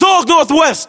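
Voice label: British threat dog north west, battle chatter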